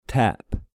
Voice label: "A" "Tap"